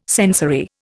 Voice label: "sensory", Indian